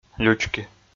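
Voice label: "лючки" Komi, male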